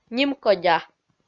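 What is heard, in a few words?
"нимкодя", Komi, female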